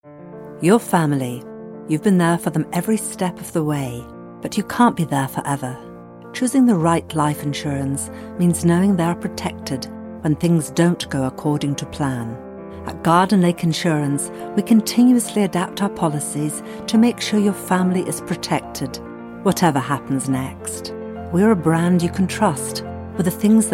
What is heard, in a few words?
Commercial, Scottish